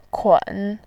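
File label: "kun3", Mandarin Chinese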